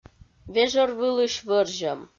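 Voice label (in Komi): "вежӧр вылысь вӧрзьӧм", Komi, female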